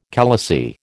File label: "khalasi", American